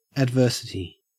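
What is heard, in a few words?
"adversity", Australian English; pronunciations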